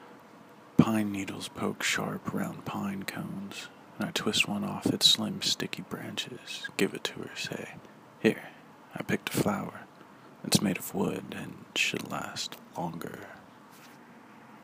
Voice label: Poem; HOOT Online, Issue 38, Micro Fiction and Mini Poems